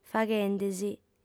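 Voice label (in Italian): "faghende si", lingua sarda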